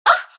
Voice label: naga pain; dota; naga siren